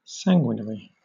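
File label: "sanguinary", British English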